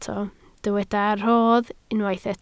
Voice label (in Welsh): "rodd", Welsh